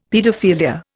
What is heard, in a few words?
"paedophilia", Indian; Dictionary